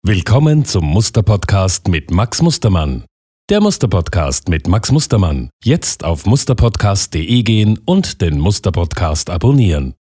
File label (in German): PodCast Stimme